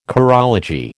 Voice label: "chorology", American